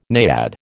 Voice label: "naiad", American

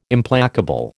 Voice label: "Implacable", American

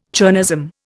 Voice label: "chernozem", Indian

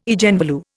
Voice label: "Eigenvalue", Indian

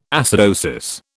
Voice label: "acidosis", British

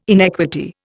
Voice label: "inequity", Indian

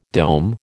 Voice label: "dome", American

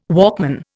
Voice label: "Walkman", Indian

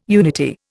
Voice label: "unity", Indian

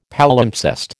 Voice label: "Palimpsest", American

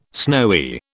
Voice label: "snowy", British

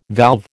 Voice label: "valve", American

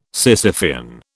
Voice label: "Sisyphean", British

